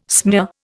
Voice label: "smear", Indian